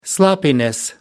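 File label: "sloppiness", British English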